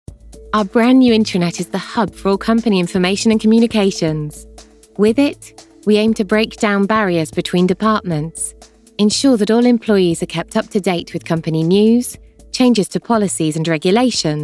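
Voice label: E-Learning, Female Voice Over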